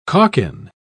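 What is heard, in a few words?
"calkin", British English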